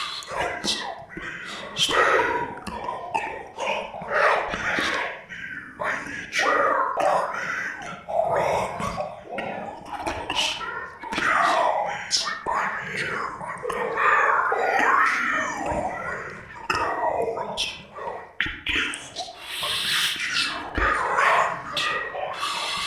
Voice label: Male whispering hunt; Phasmophobia